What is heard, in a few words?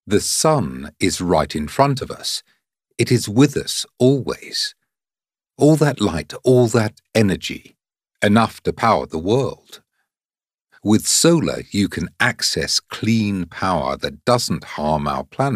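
English male; lektoring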